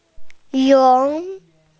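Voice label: "yun" Chinese, female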